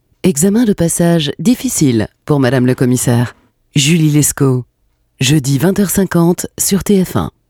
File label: French Female TV Trailer